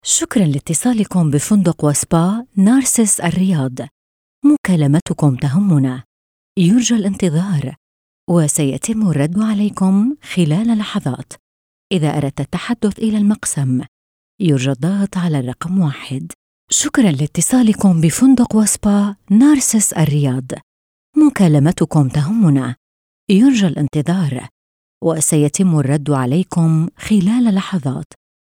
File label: Arabic F IVR HOTEL